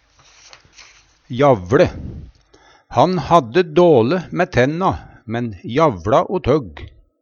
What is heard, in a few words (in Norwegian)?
javLe, Numedalsmål